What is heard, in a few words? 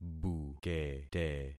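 "bukete", concatenated syllables; Affixing experiment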